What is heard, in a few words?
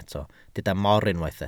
"mor", Welsh